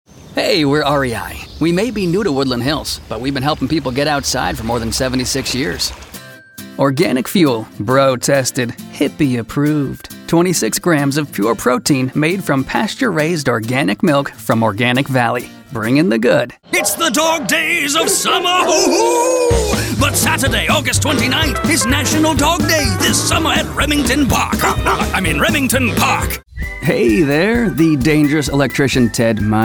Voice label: Radio VO

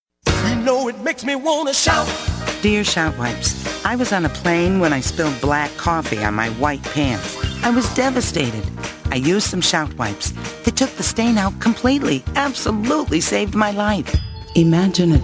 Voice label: Real Spots

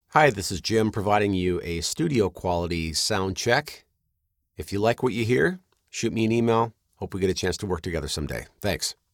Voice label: Studio Quality

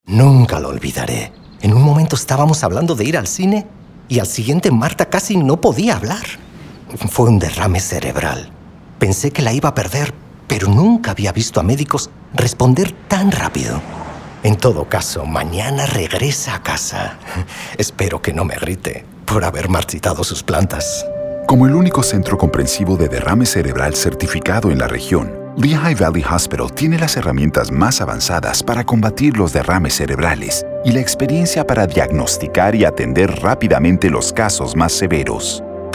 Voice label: Neuro Spanish Radio; LVHN

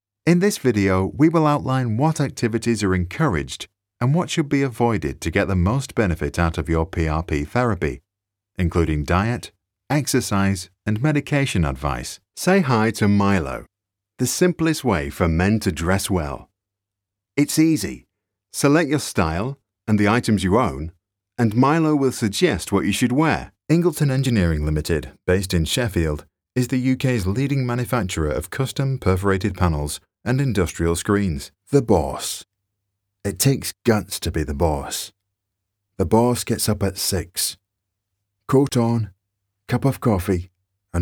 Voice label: DRY

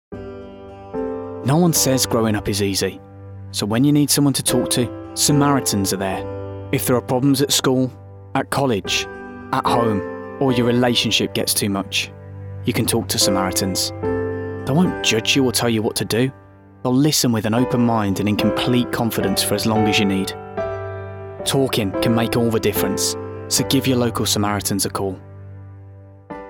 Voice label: Ad; Samaritans

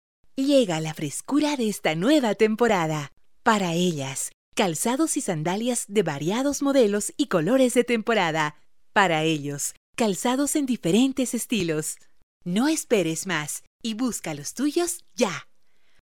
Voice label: radio commercial

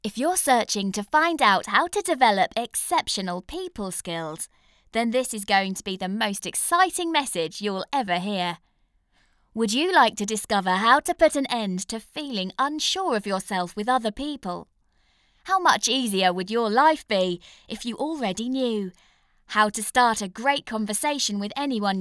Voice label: Explainer Video

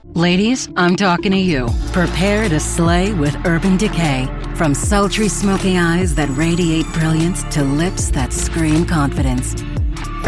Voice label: E-Learning, Friendly Educational, Female Voice; URBAN DECAY

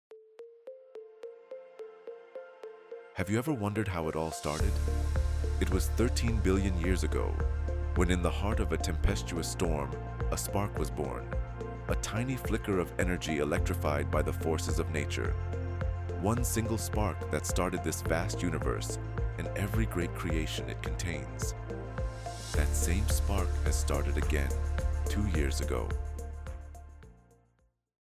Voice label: Documentary English

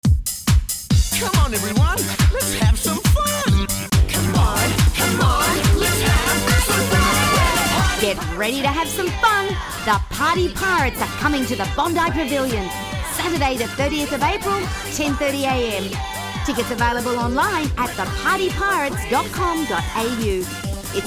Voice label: Concert Promo; Bondi Pavillion

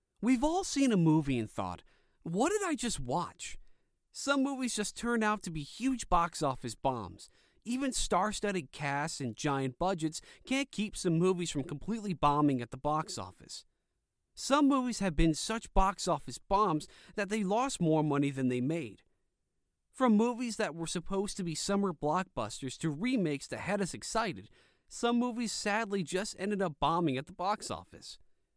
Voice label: Explainer Video Sample

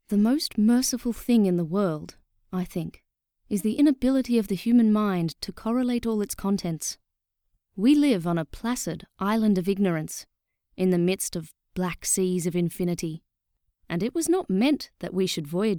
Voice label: horror narration

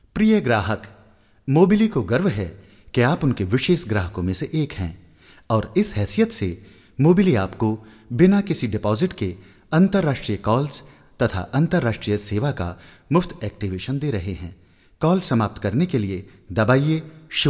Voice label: Hindi

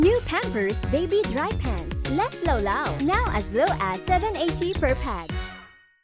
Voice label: Digital Ad; Pampers

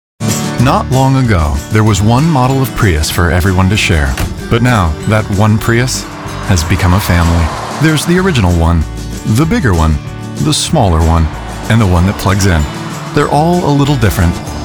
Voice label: Commercial

